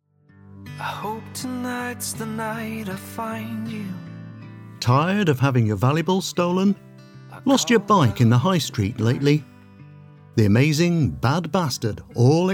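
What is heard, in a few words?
voiceover character, British character voice over; bad bastard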